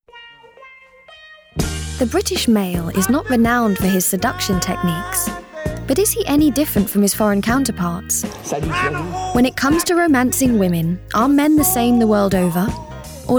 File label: Voice Documentary